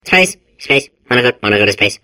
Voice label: babble; portal2